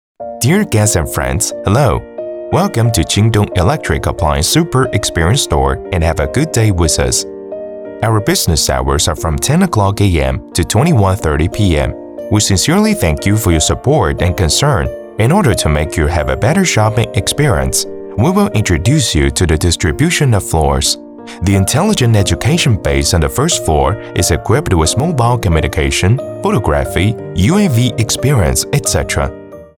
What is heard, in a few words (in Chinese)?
英文专题温馨提示类